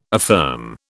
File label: "affirm", British